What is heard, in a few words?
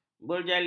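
"berjalin", Malay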